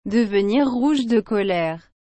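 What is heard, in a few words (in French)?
"devenir rouge de colère", French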